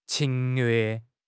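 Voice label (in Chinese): "侵略", Chinese; HSK6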